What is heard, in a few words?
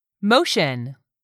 "MOTION", english; 3000 words